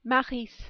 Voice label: "Maryse", French

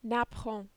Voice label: "napperon", French

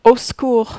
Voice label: "au secours", French

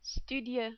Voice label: "studieux", French

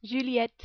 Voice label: "Juliette", French

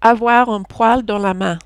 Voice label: "avoir un poil dans la main", French

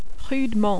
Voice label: "rudement", French

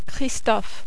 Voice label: "Christophe", French

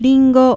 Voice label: "ringo", Japanese

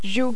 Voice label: "joue", French

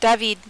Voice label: "David", French

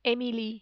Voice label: "Emilie", French

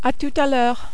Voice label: "a tout a l heure", French

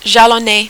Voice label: "jalonner", French

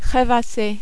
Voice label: "revasser", French

